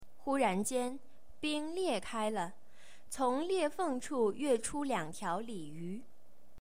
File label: Mandarin Chinese